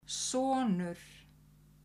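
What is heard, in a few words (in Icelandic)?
"sonur", Icelandic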